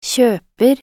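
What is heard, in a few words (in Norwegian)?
"kjøper", Norwegian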